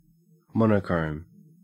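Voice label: "monochrome", Australian English; pronunciations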